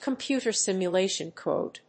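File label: "computer simulation code", English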